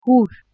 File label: "hur", Armenian